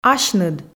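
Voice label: "асьныд", Komi, female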